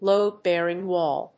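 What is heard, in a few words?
"load-bearing wall", English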